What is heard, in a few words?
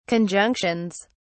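"conjunctions", British English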